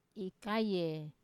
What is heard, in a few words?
"kaye", Wamesa